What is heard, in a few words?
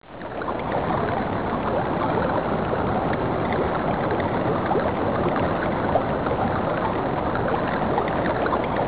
babble